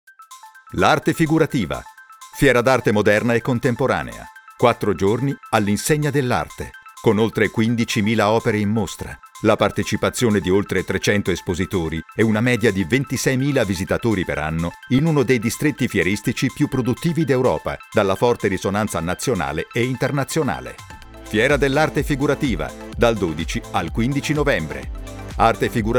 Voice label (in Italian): istituzionale tecnico; fiera